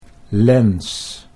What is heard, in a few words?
"lens", Dutch